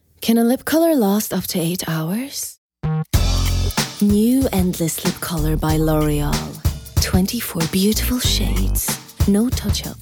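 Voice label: Norwegian, Accented English; GoLocalise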